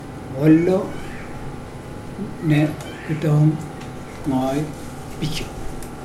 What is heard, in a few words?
the man gave the pig to me, Mokilese